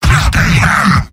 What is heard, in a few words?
jeers; demoman; mvm